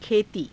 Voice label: "khetii", Hindi